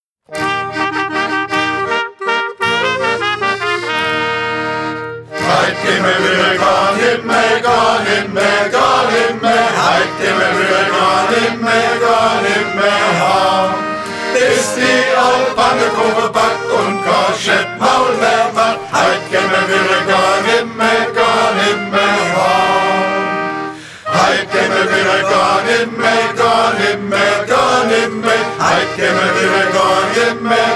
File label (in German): Mundart Heimgehen